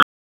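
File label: Raleigh Police North District Tactical, p25; wake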